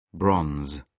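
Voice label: "bronze", British English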